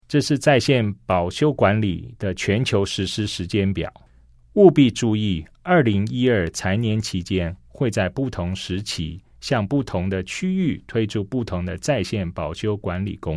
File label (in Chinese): CHINESE